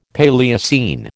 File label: "Paleocene", American